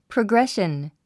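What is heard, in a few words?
"progression", English word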